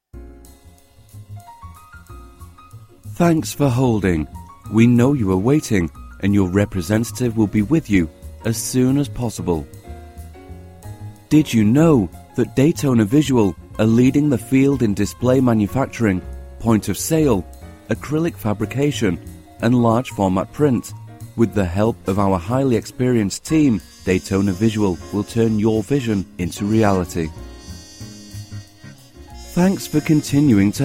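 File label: English M IVR Northern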